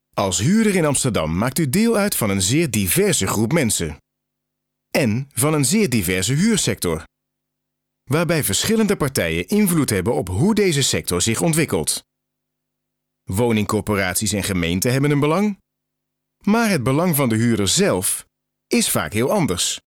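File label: Dutch positive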